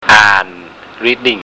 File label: "aahn" reading, Thai